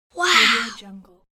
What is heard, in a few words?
child saying soft wow